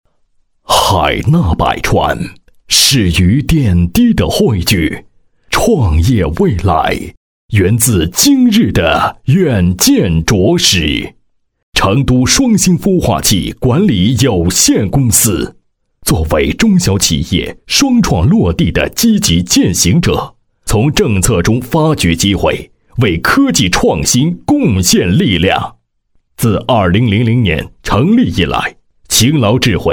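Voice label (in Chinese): 国语 男声 专题片 成熟大气 大气浑厚磁性 沉稳; 科技公司专题片; 双新孵化器管理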